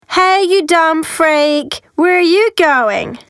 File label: Trash Can, GIRL